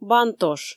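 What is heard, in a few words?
"бантош" Komi, female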